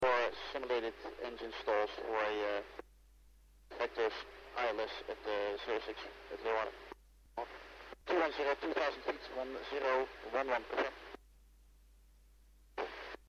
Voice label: f-16 simulated engine stall inbound, rapcon; sting